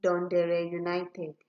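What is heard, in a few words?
"dundereunited", Nigerian Pidgin, female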